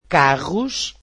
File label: "cars", Portuguese